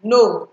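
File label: "no", Nigerian Pidgin, female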